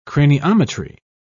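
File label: "craniometry", English, British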